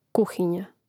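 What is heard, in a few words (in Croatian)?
"kuhinja", Croatian; Mrežnik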